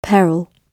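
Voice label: "peril", British English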